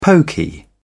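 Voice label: "poky", British English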